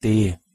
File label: "d" pronunciation de